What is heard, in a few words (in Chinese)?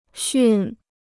"驯", Chinese